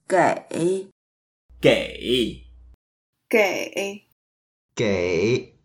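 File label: "gei3", Mandarin Chinese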